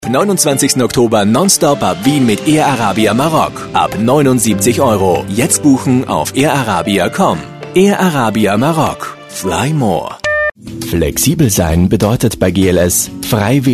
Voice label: german voice over male commercial